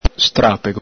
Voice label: "strapego", Veneto; ENG: dragging, trail; ITA: strascico; el Galepin

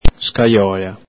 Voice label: "scajola", Veneto; scajòƚa; sorghum; saggina; el Galepin; N.fem. sing.

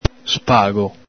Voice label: "spago", Veneto

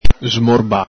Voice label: "smorba", Veneto

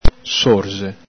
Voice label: "sorxe", Veneto

